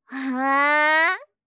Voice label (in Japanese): "ふーん", ASMR